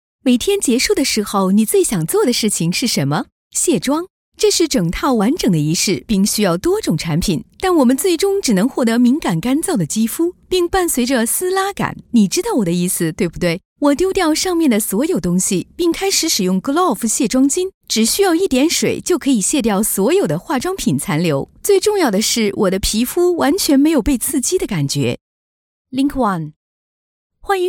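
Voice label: Chinese, Corporate; GoLocalise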